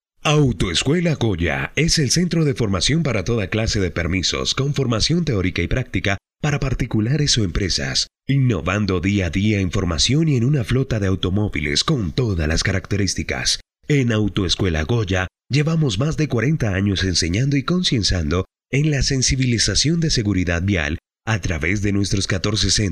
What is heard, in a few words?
locutor colombiano colombian voiceover